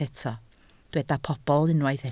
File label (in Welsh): "pobl", Welsh